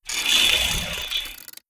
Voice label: robot plantoid greetings; Stellaris; synthetic dawn